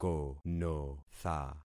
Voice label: "konotha", concat syllables; Affixing experiment